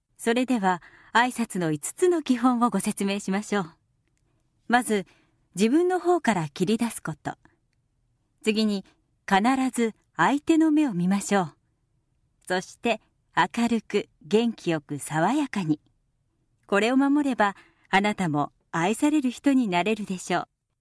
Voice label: Narration